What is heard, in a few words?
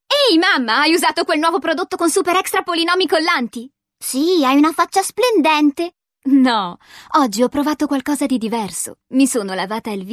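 F, Italian, female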